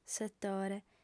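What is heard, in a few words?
"setore", female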